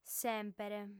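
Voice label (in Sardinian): "semper", female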